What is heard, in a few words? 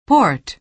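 "port", American English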